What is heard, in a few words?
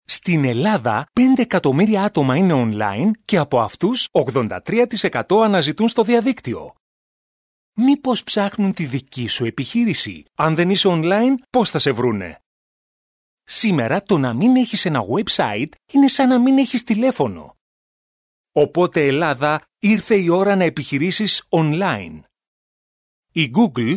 greek male corporate